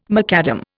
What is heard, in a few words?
"macadam", Indian